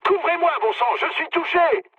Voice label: aircraft damaged mood med, speech fr; War Thunder

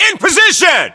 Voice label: in position, voiceovers, US; english

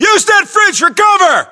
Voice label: US order cover fridge, battlechatter, english

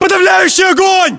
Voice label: order action suppress, english; localized english

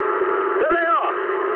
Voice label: eng enemy detected mood med, speech eng

